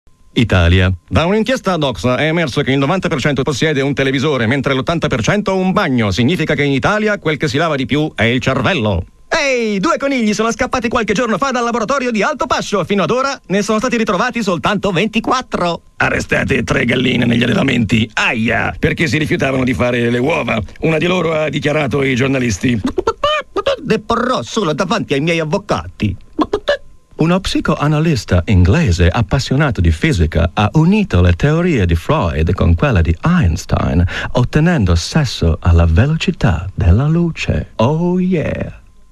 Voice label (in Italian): voci carattere